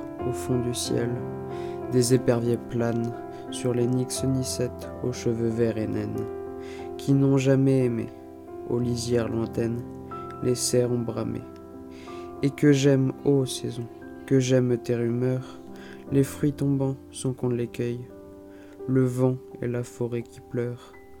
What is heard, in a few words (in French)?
lecture en musique automne malade; Apollinaire